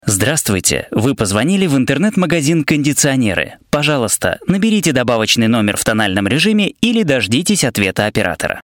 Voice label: ivr